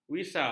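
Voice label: "visa", Malay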